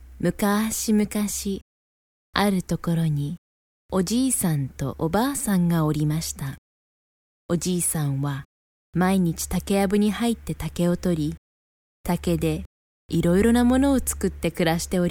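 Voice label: Japanese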